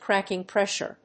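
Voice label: "Cracking pressure", English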